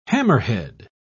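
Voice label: "hammerhead", British English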